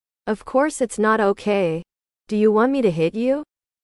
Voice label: english